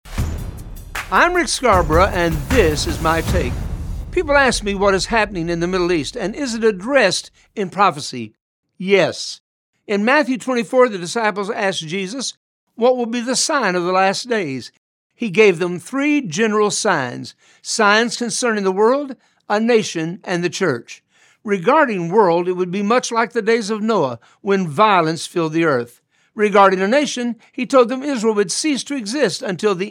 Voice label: Radio Spot